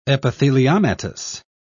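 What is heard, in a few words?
"epitheliomatous", British English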